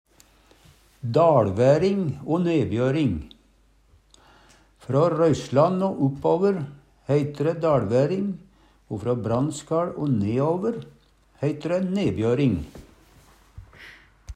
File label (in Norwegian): "daLværing o nebjøring", Numedalsmål